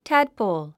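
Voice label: "tadpole", English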